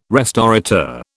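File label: "restaurateur", British English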